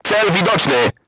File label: voice message target lock, crew tanks polish